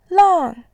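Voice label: "lang4", Mandarin Chinese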